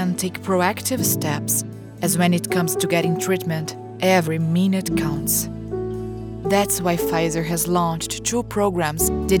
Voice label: medical pharma cancer genuine warm caring, female Brazilian English; PFIZER